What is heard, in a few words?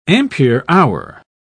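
"ampere-hour", English, British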